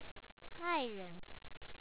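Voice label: "爱人", Chinese; a4iren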